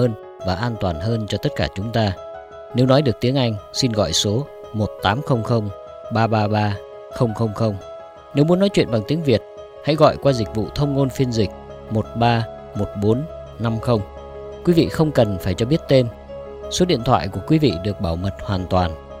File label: Vietnamese Crime Stoppers